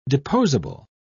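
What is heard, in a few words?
"deposable", English, British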